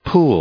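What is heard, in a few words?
"pool", English; noun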